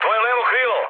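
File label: Serbian left wing mood high, speech; War Thunder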